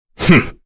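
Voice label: Vox Skill, voices heroes English; Kain; kingsraid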